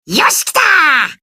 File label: happy; yamada